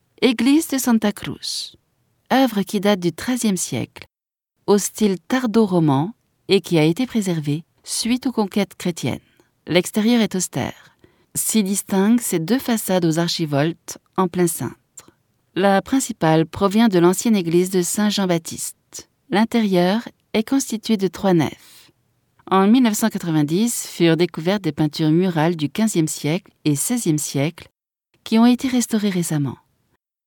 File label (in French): audioguia, frances; audioguia baeza